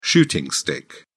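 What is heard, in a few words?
"shooting stick", British English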